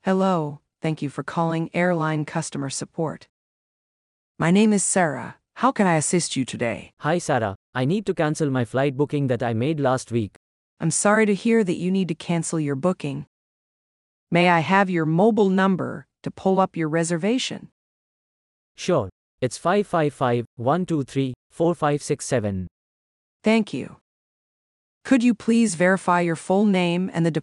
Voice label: Conversation